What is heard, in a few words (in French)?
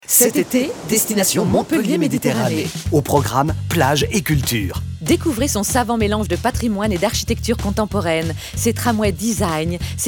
spot radio; montpellier; fb toulouse